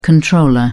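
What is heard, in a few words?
"comptroller", English, British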